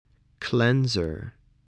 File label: "cleanser", voice